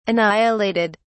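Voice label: "annihilated", British English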